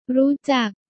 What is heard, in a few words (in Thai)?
"รู้จัก", Thai